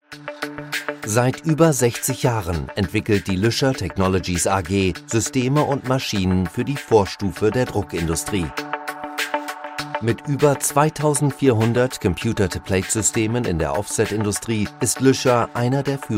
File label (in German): Sprecher Imagefilm; Luescher